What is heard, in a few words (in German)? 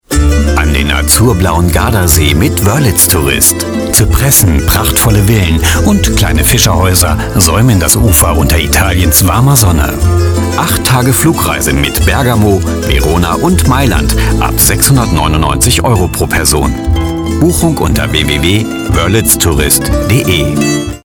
Tourismus Radiowerbung